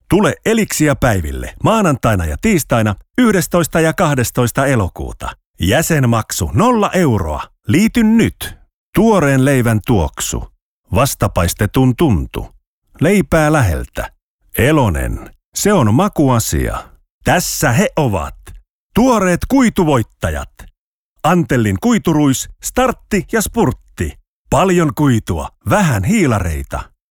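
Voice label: Finnish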